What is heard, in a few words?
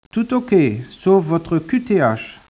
speech, French